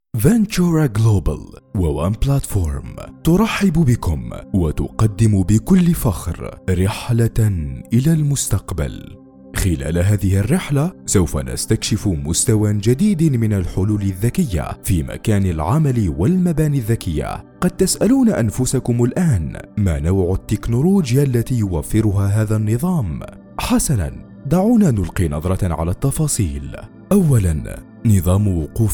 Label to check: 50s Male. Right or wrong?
Wrong